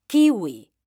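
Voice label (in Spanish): "KIWI" Spanish, female; mekanta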